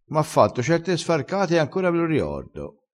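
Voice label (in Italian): "sfarcata", dialettale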